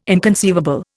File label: "inconceivable", Indian